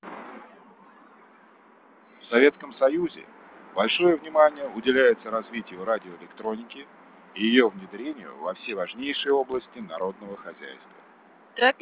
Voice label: fspeech street2 +12dB enh